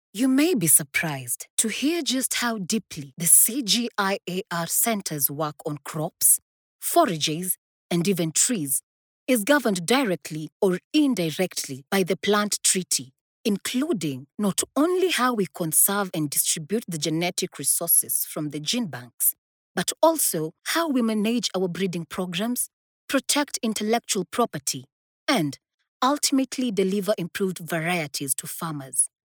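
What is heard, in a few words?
African English, female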